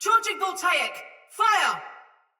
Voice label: Attack Soldier Mace Agent Female; Ode Tech; Sinecure; Battle; Sinecure Melee